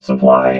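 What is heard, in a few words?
"supply", robot voice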